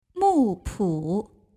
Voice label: "Mupu", Chinese

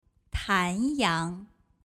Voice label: "Tanyang", Chinese

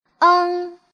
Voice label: "eng1", Chinese; 중국어 성모 연습

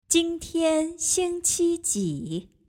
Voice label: "qinghuadaxue", Chinese

